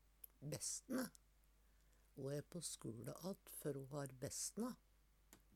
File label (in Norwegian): bessne, Numedalsmål